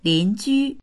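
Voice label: "lin2ju1", Mandarin Chinese; 邻居; 隣近所の人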